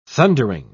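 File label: "thundering", British English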